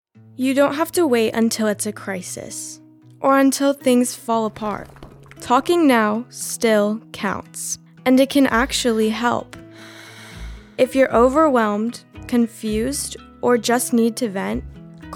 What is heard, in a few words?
RADIO SPOTS Female; Right Now Counts; mental health conditions